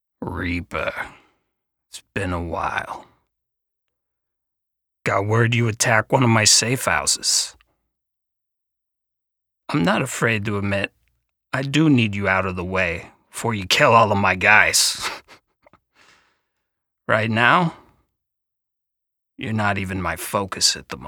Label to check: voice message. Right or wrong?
Wrong